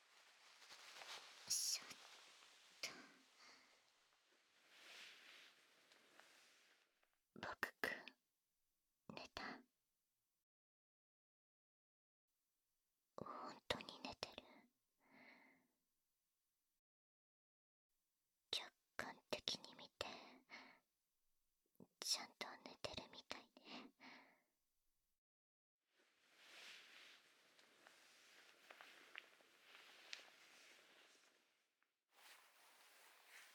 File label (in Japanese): あやしい 添い寝; 大学生篇; 淫眠パート